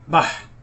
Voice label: go away, somali

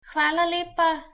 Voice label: thanks, slovenian

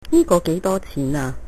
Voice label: how much, cantonese

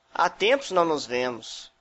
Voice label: long time no see, portuguese br

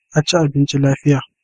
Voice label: bon appetit hausa

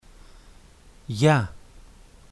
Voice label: "hello", Greek; Γειά!; (Ya) - inf; Greek (Modern)

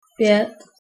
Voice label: five, Czech

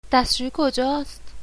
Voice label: toilet, persian

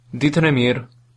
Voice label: have a nice day, albanian

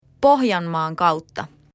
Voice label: cheers, finnish

